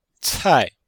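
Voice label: "cai4", Chinese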